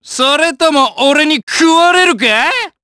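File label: Vox Skill, Japanese; Bernheim; kingsraid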